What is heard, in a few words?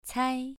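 "cai" Mandarin, second tone, pronunciation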